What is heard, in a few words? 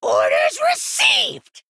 Male Goblin Attack